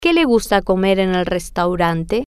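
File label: Que Le Gusta Comer En Restaurante, Spanish, female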